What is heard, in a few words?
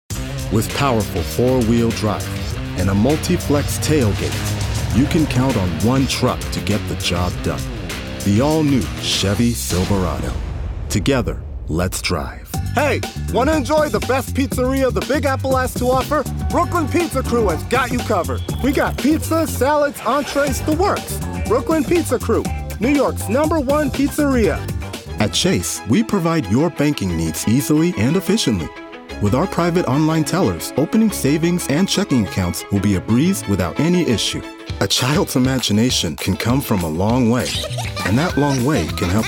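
commercial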